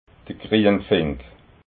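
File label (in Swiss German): "grienfink", Alsatian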